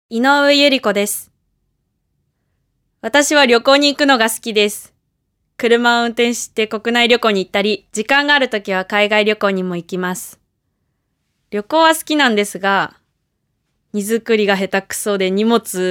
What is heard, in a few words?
freetalk, female voice